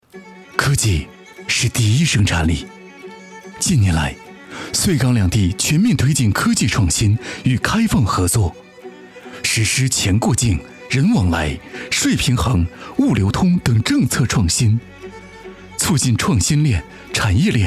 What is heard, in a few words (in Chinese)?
男 城市; 穗港